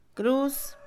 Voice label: Mixe, Mujer; cruz; Espaciales; INALI

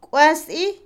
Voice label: Otomí, mujer; salpicar; Movimiento; INALI

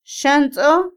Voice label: malo, Otomí, mujer; Emociones; INALI

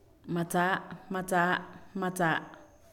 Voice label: ahogado, Pame; Elcuerpo; INALI

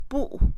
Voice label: romper, Mixe, Mujer; Acciones; INALI

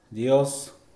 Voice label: "dios", Mixe, hombre; Religión

